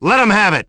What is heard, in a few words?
battlecry; ash